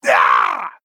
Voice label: Male Blood Elf Death

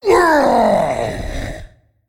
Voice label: Male Dreadlord Death

